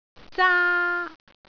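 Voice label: "zaa1", Cantonese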